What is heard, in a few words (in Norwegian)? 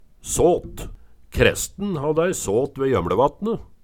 "såt", Numedalsmål